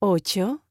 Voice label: Spanish Number